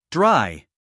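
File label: "dry", American English; everyone-can-use-english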